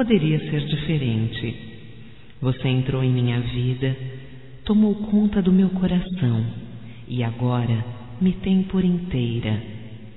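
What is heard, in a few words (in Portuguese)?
voz, Surpresa de Aniversário, female, Telemensagens; Telemensagens 2017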